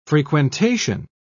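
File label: "frequentation", British English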